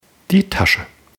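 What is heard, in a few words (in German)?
"tasche", German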